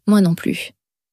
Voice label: "moi non plus", French

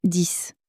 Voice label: "dix", French

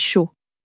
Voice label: "chaud", French

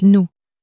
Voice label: "nous", common french words

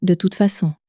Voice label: "de toute facon", French

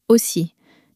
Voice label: "aussi", french

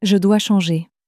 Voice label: "je dois changer", french; flashcards

